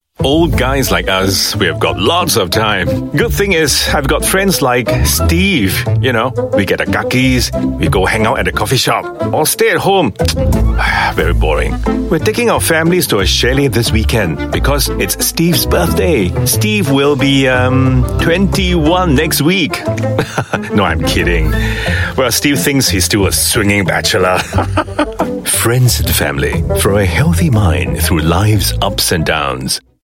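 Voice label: Asian English Character Read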